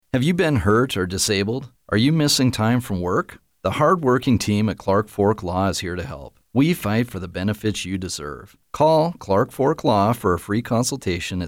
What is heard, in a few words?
Radio Spot; CFL